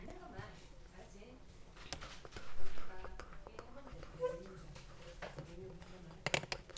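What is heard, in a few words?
"book", spoken word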